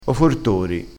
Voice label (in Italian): "fortori", crespinese